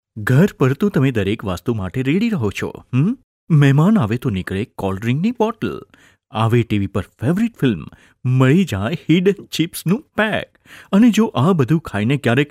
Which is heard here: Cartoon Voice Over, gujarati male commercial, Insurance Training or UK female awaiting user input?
gujarati male commercial